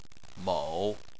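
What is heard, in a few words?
"mou", Chinese, third tone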